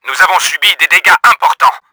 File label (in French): HEALTH LOW, french; Battle Engine Aquila